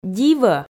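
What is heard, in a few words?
"дивӧ", Komi, female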